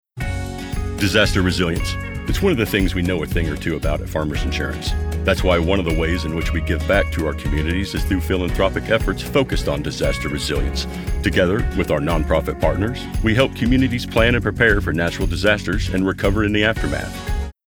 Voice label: Explainer Insurance; Farmers Insurance